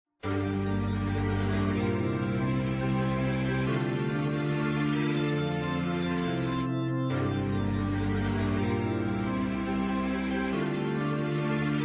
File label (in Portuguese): Boa Viagem Romantica Feminino; Telemensagens; Românticas